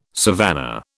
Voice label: "savannah", British